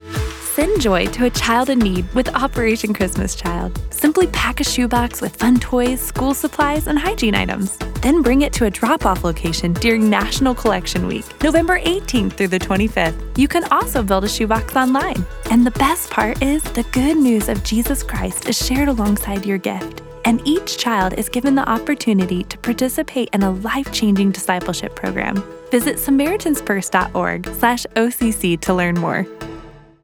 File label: PSA; NCW Dates